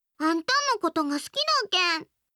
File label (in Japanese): 好きです 島根; 真美